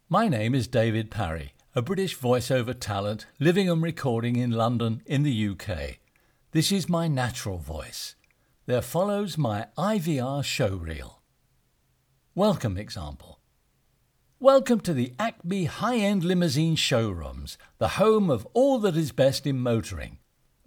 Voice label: IVR